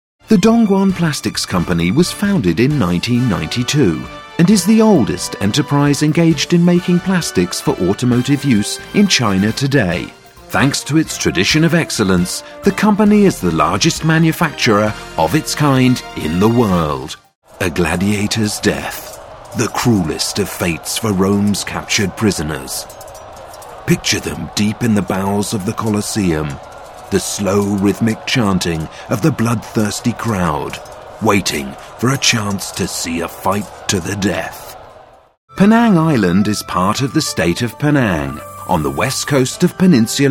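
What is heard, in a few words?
British, narration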